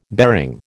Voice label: "bearing", American